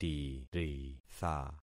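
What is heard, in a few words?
"tiritha", concatenated syllables; Affixing experiment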